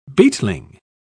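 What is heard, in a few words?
"beetling", en, uk British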